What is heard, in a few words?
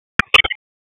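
Raleigh Police Southwest District Tactical, p25; wake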